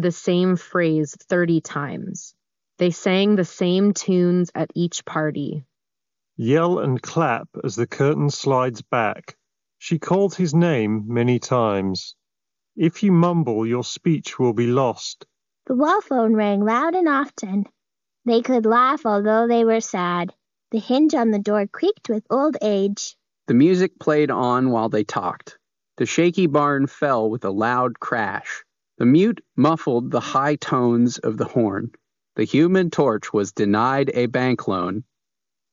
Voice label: Ideal microphone sample; Sony Linkbuds

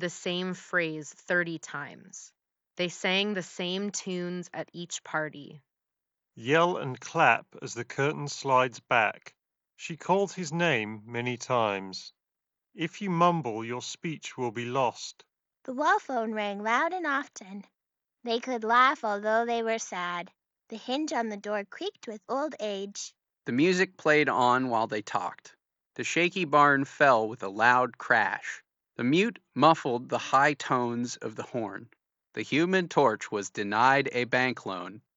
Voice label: MIC SAMPLE ideal; turtle beach; ATLAS AIR

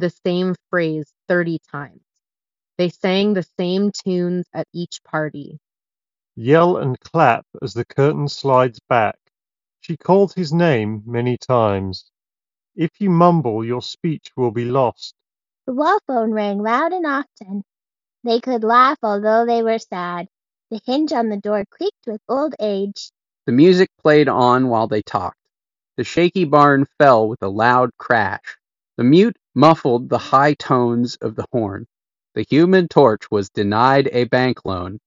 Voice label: Ideal microphone sample; Fairphone Fairbuds

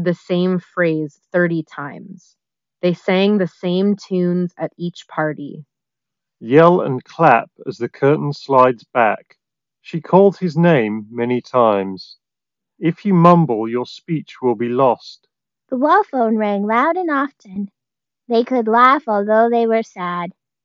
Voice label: Ideal microphone sample; Razer Hammerhead Pro Hyperspeed BT

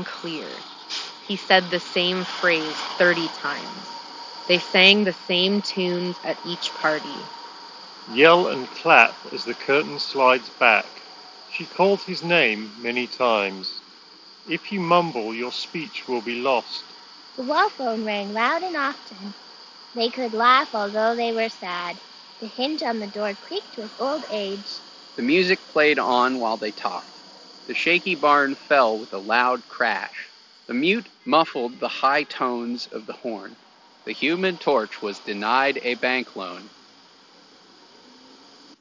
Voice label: Bose Frames Tempo Street microphone sample

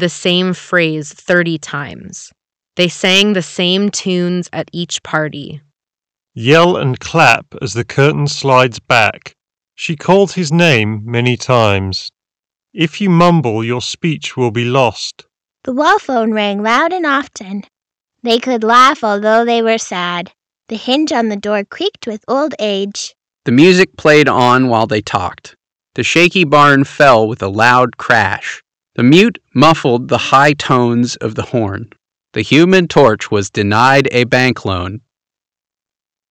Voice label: Ideal microphone sample; Astro A40

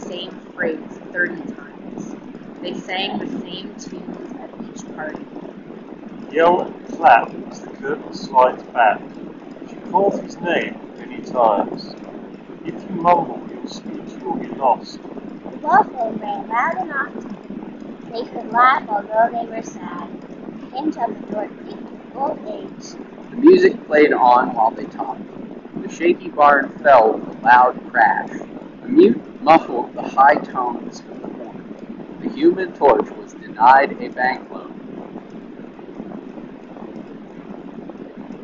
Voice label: Wind Street sample; Tozo T12

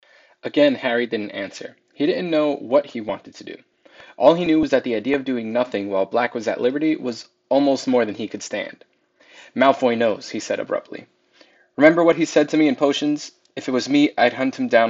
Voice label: Marshall Monitor II ANC voice sample

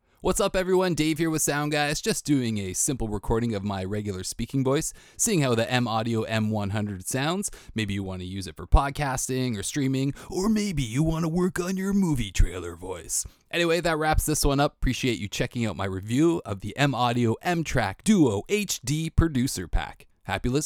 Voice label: Podcast Example; M-TRACK DUO HD; producer pack

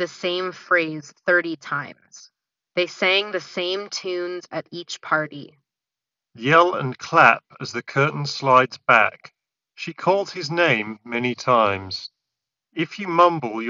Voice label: True Wireless Ideal microphone sample; Sennheiser Momentum 4

